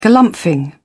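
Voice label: "galumphing", British English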